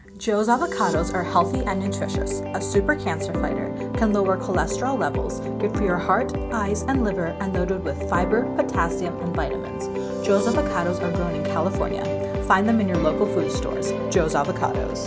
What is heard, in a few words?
radio ad; Claim Examples; fundmatch